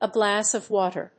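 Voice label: "a glass of water", English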